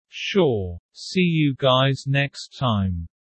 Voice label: low; Animal friends